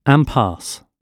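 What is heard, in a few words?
"impasse", English; oxford api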